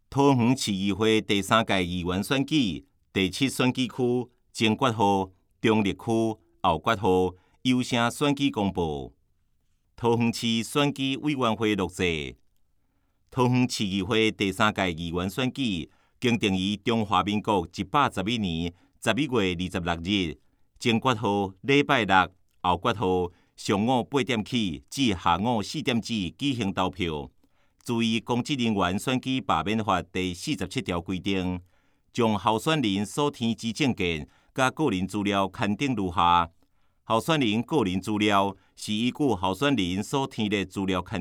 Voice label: 前言, 台語; 有聲公報; 市議員

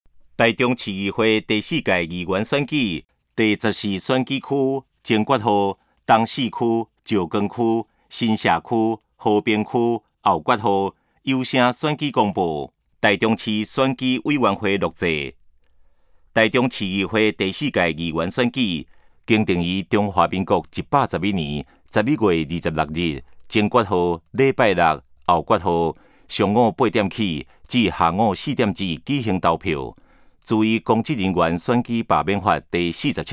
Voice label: 前言, 台語; 臺中市; 有聲公報; 市議員; 第14選區

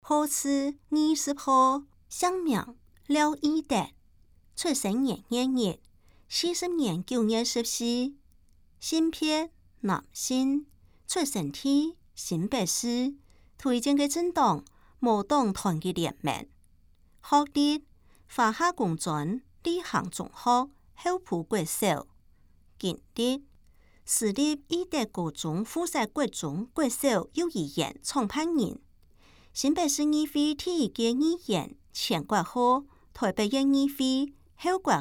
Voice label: 客語; 新北市; 有聲公報; 市議員; 第5選區

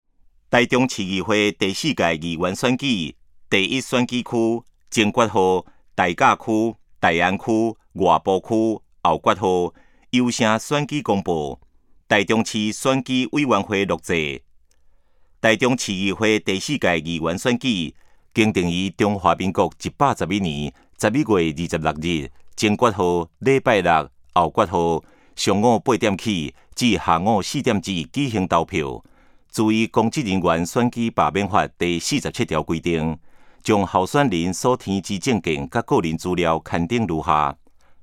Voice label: 前言, 台語; 臺中市; 有聲公報; 市議員; 第01選區

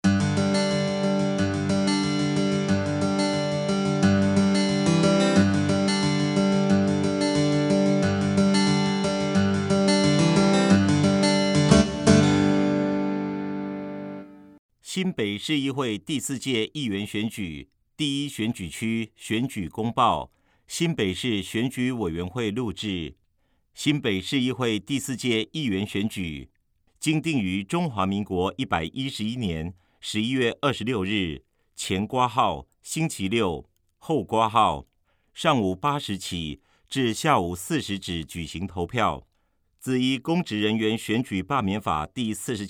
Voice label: 國語; 新北市; 有聲公報; 市議員

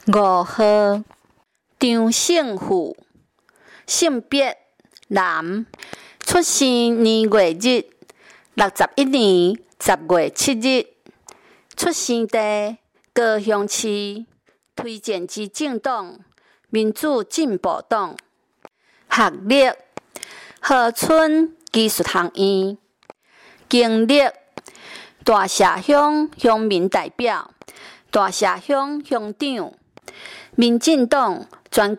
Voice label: 台語; 第五選區

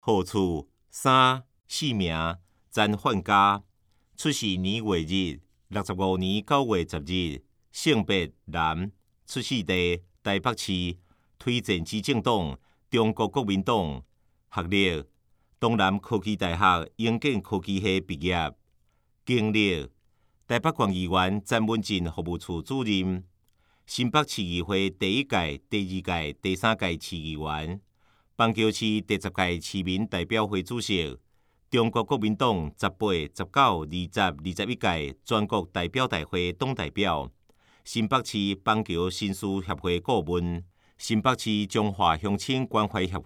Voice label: 臺語; 新北市; 有聲公報; 市議員; 第5選區

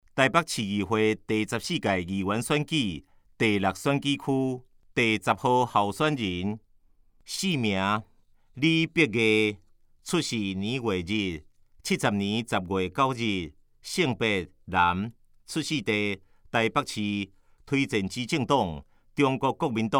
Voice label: 台語; 臺北市; 有聲公報; 市議員; 臺北市議會第14屆議員第6選舉區 (大安、文山區)候選人介紹

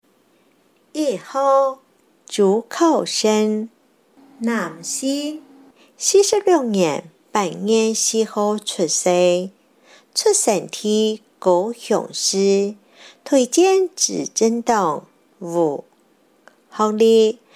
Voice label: 客語; 高雄市; 高雄市市長議員有聲選舉公報

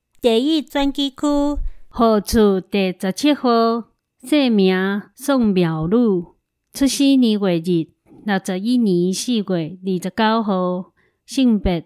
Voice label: 臺語; 彰化縣; 有聲公報; 縣議員; 第一選區

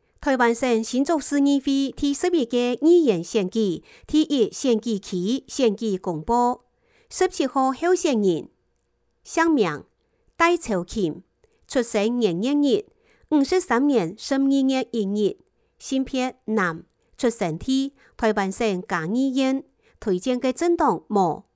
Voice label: 客語; 新竹市; 有聲公報; 市議員; 第一選舉區

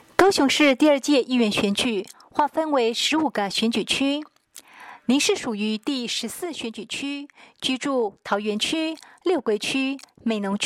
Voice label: 國語; 高雄市; 高雄市市長議員有聲選舉公報; 第十四選區

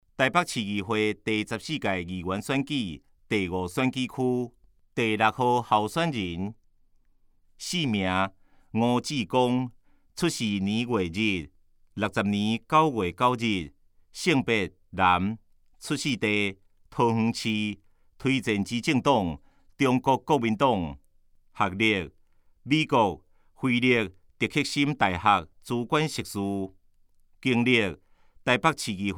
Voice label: 台語; 臺北市; 有聲公報; 市議員; 臺北市議會第14屆議員第5選舉區 (中正、萬華區)候選人介紹

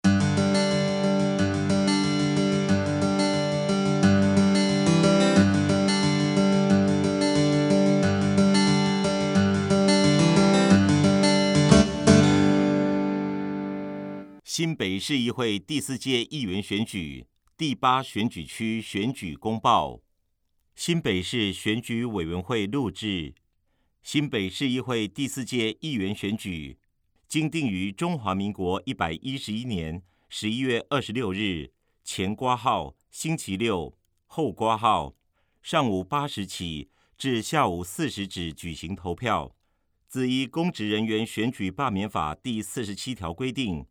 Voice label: 國語; 新北市; 有聲公報; 市議員

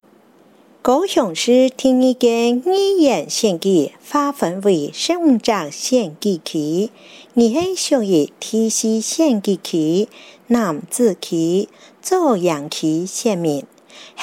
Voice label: 客語; 第四選區

